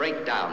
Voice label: "break down", Voice